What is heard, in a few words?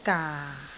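"gaa4", Cantonese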